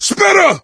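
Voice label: warn spitter, voice, male; Left 4 Dead 2; coach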